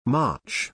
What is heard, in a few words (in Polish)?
"March", angielski